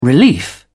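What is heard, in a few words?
"relief", British English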